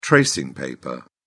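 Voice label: "tracing paper", British English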